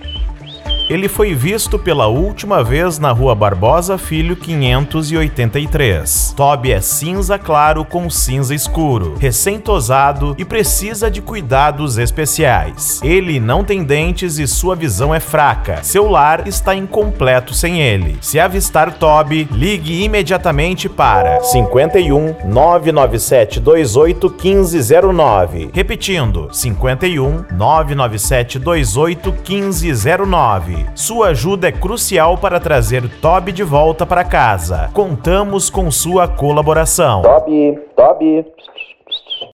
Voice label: Locutor Cachorro Perdido Da Raca Yorkshire; Gravatai RS

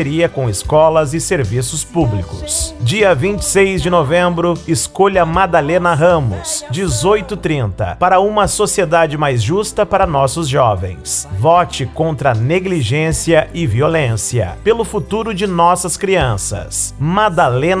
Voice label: Locutor Conselheira Tutelar; Porto Alegre RS

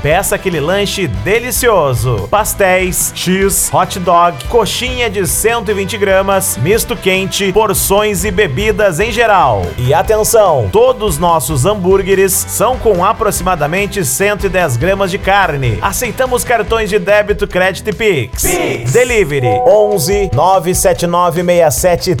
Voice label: Locutor Hamburgueria; Brotinho Hamburgueria; Jandira SP

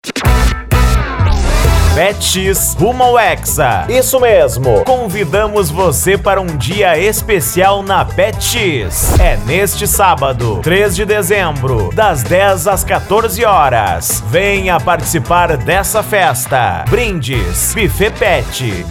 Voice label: Locutor Feirao Da Pet; Salvador BA

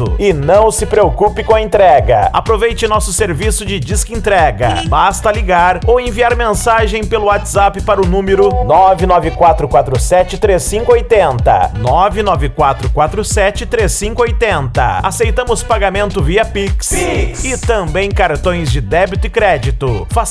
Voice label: Locutor Distribuidora; Tonamidia; Itacoatiara AM

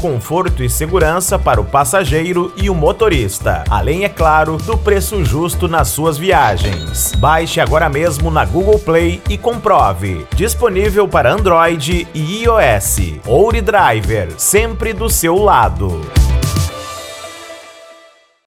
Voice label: Locutor Mobilidade Urbana Aplicativo; OuriDriver; Ouricuri PE

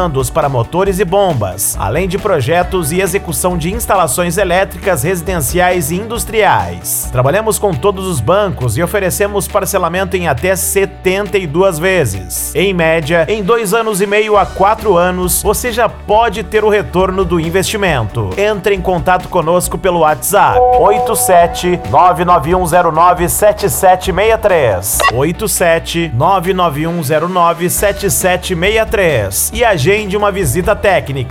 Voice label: Locutor Energia Solar; RI Solucoes LTDA; Pindobacu BA

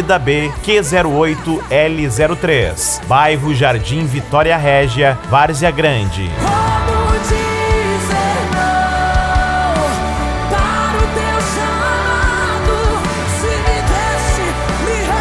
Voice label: Locutor Igreja Culto; Varzea Grande MT

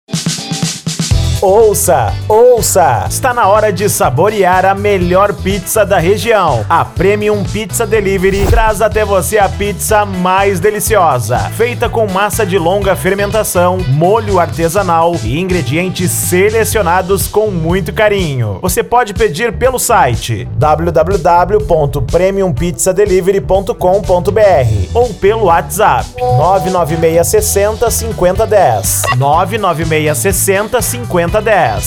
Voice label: Locutor; Premium Pizza Delivery; Penha SC

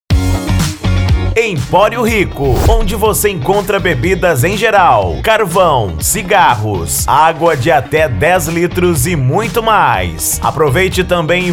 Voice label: Locutor; Emporio Ricco; Trindade GO

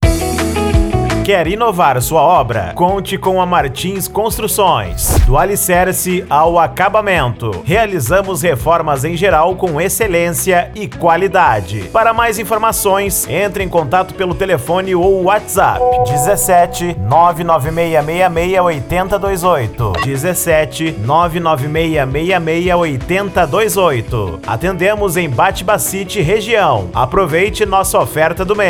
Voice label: Locutor Spot Video; Martins Construcoes; Bady Bassitt SP

